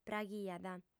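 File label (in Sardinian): "praghiat", female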